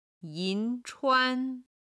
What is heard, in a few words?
"yin2chuan1", chinese